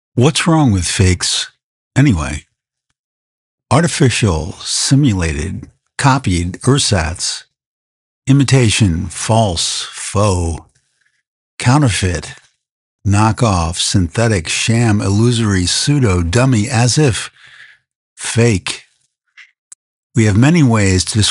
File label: Voiceover; whats so wrong with fakes